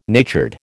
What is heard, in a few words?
"natured", American